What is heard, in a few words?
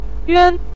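"yuan" neutral tone, Mandarin Chinese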